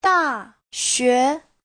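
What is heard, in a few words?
"dàxué", Mandarin Chinese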